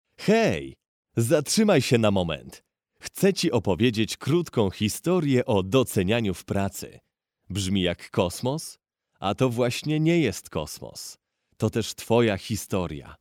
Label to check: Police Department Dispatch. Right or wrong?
Wrong